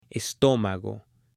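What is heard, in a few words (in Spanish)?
"estómago", Spanish, male; CUERPO; AppLenguaje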